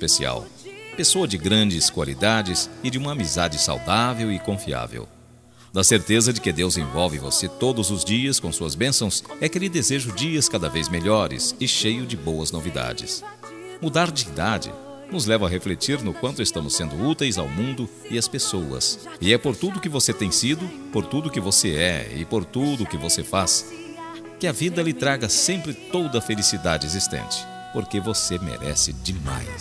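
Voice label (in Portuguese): ANIV CUNHADA male, telemensagem; cunhada cunhado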